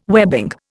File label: "webbing", Indian